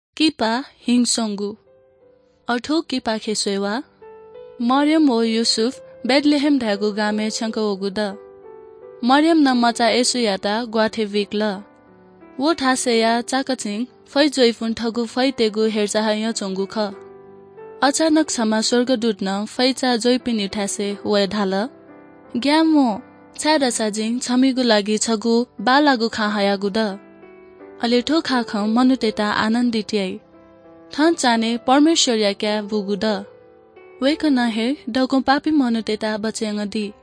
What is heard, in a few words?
good news newari bhaktapur